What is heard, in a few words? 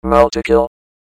multikill, robot; cstrike; quake